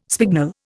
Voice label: "spignel", Indian

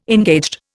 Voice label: "engaged", Indian

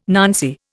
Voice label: "nancy", Indian

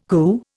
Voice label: "coo", Indian

